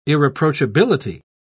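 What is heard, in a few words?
"irreproachability", British English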